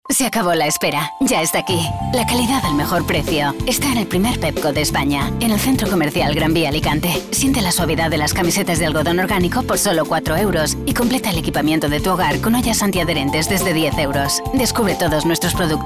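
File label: Spanish, female, commercial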